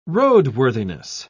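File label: "roadworthiness", British English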